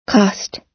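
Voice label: "cost", American English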